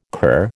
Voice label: "cur", American